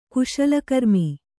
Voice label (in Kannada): "ಕುಶಲಕರ್ಮಿ", kannada